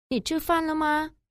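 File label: "ni chi fan le ma", Mandarin Chinese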